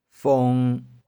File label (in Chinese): "fung1", 玉環